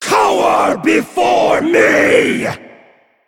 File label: Female Dragon Attack